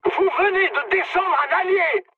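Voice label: French player killed ally mood high; War Thunder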